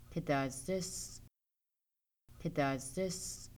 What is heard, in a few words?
moose hide, Kaska